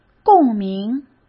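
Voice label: "共鸣", Mandarin Chinese; chinese flashcards; hsk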